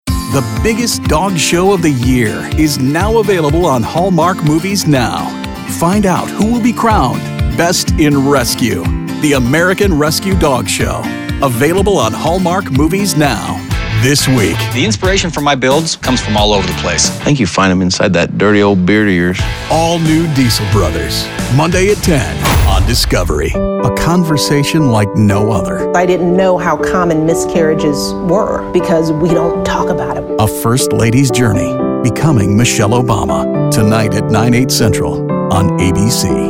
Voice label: men promos